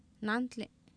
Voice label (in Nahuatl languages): "naantli", Oapan, female; Oapan minimal pairs 1998